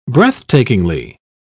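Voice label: "breathtakingly", British English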